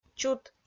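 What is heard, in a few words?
"чут", Komi-Zyrian, female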